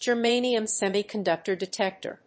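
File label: "Germanium semiconductor detector", English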